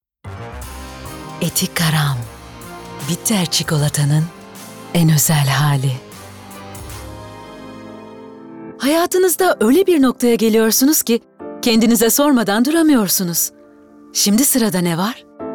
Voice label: REKLAM

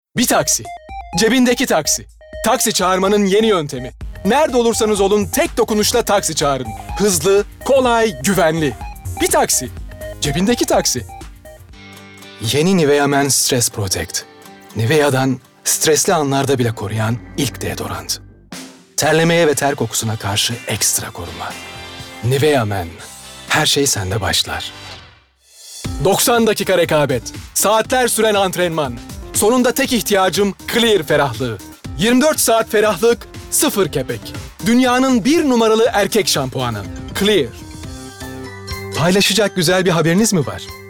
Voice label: Reklam

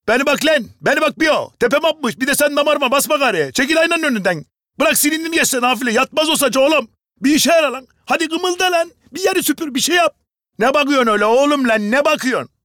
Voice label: Ege Lehçesi

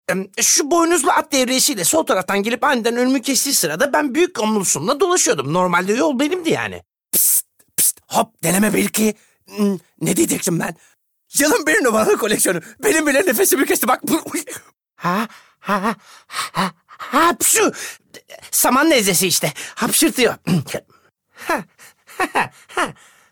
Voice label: Reklam

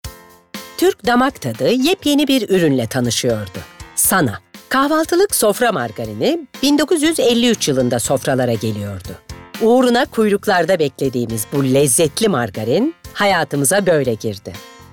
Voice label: Tanitim

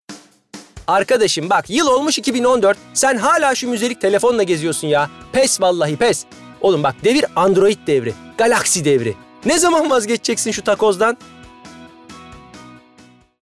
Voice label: Komik

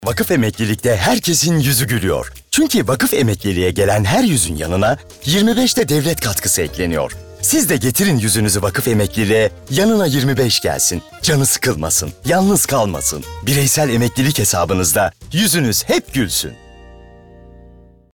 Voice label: Komik

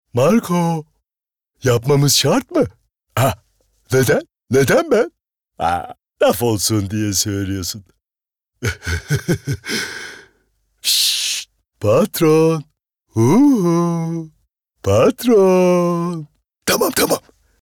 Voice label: Animasyon

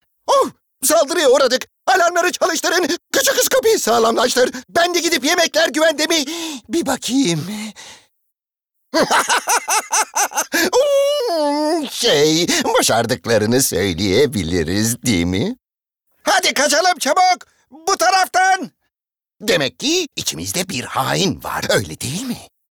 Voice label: ANIMASYON

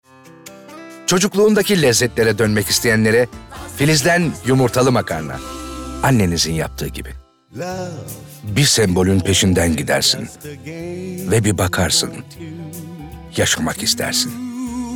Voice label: REKLAM

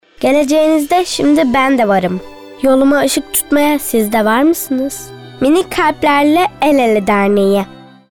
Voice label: Reklam

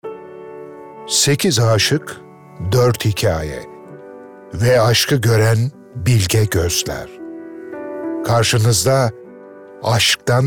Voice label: Romantik

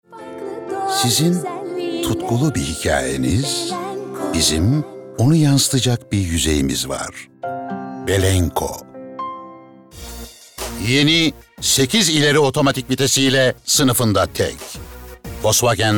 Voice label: Reklam

